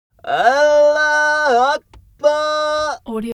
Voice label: human vocal male pray arabian

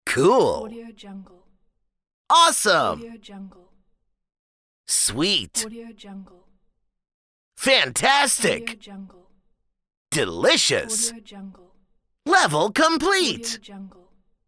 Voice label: game phrases male voice

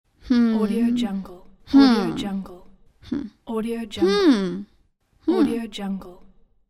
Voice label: hmm thinking female voice

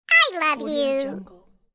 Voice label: cartoon voice "I love you"

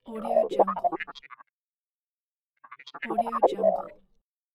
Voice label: alien talking; soundseeds

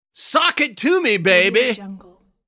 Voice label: male voice sock it to me baby

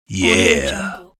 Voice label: male "ya"

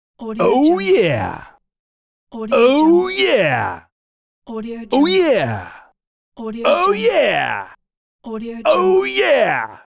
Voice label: man shouting oh yeah

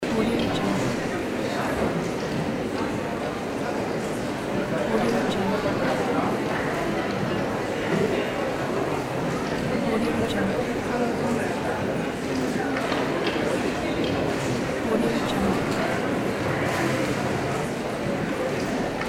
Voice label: talking people; mega-loop

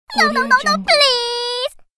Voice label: cartoon cute begging please

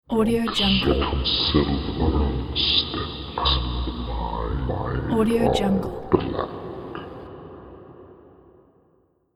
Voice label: demonic cult leader possessed horror voice

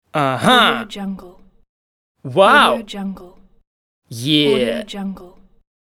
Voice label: man aha wow yeah

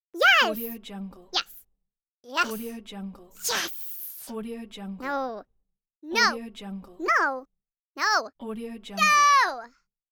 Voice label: cartoon character voice

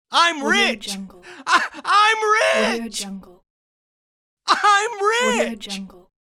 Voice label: man yelling im rich

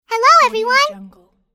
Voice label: cartoon girl cute hello everyone

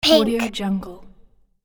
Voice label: boy says "pink"

